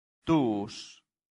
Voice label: "tuus", Latin; santillana

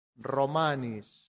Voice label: "romanis", latin; santillana

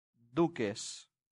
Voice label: "duces", latin; santillana

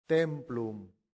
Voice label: "templum", latin; santillana

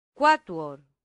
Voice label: "quattuor", latin; santillana

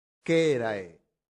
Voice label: "cerae", latin; santillana

